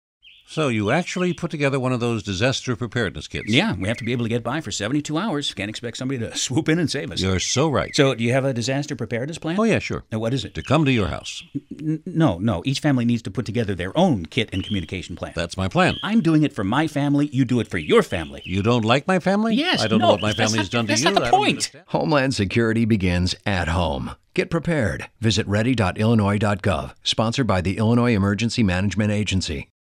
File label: radio campaign